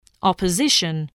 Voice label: "opposition", English voice

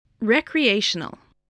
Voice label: "recreational", voice, english

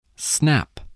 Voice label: "snap", English

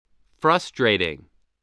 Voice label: "frustrating", English voice, spelling

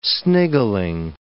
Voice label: "sniggling", english

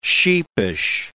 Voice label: "sheepish", English

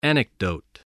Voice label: "anecdote", spelling

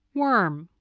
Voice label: "worm", voice, english

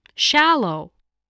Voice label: "shallow", spelling

